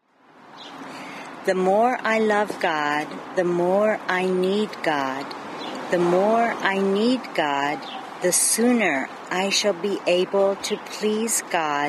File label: poem